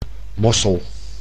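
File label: "mossel", Dutch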